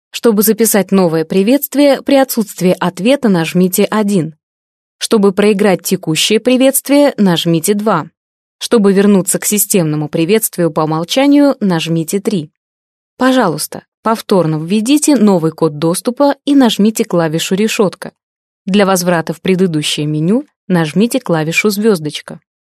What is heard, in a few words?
russian female